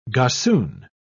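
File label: "gossoon", English, British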